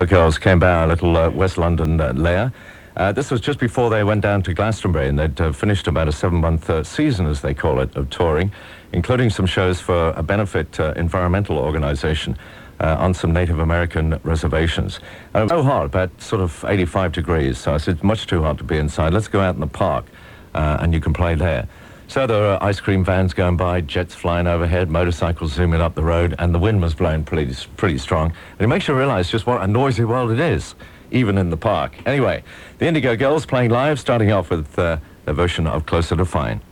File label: announcer; radio